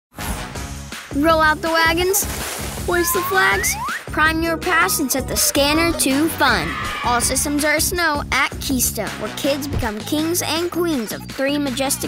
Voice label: Commercial